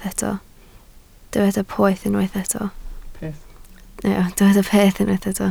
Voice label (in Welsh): "peth", Welsh